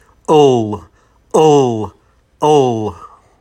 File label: L Voiced